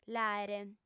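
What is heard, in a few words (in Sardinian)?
"l'àere", Sardinian, female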